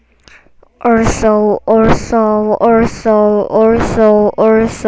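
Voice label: "also", spoken word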